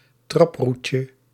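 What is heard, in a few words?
"traproetje", Dutch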